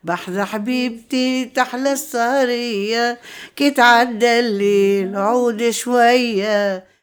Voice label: OLD WOMAN, Arabian Traditions

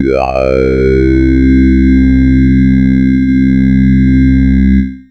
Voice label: FORMANT, COMPUTER, Funky Vocals; USB Soundscan vol.18; AKAI

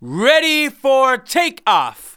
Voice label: "READY FOR TAKEOFF", SPOKEN; Techno Trance Essentials

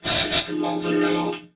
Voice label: "System Overload", vox; Zero-G; Soundclash Nu Dance Electro; Acidized

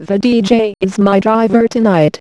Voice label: DJ IS DRIVER, Funky Vocals; USB Soundscan vol.18; AKAI; COMPUTER

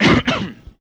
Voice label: VOICE, VOICE-FX

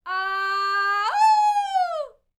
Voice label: MEX FEMALE, World Voices; ILIO; Vocal Planet VOL-5

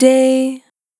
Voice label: "Short Day", vocal samples; Words

